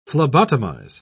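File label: "phlebotomize", British English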